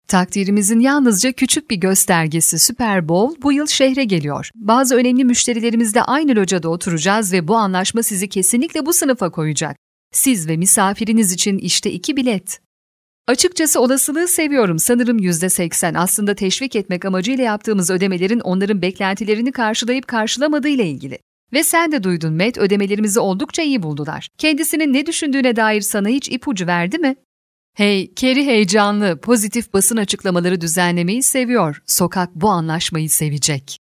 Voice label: tr informative